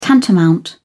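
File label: "tantamount", British English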